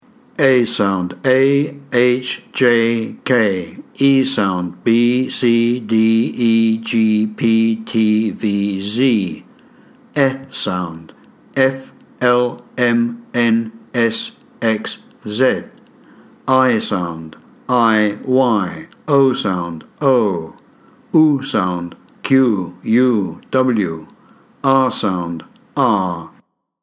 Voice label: alphabet sounds british